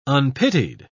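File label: "unpitied", British English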